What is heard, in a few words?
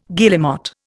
"guillemot", Indian